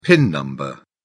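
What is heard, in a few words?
"pin number", British English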